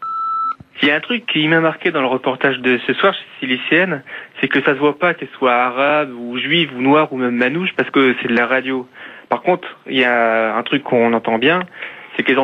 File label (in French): Accent marseillais repondeur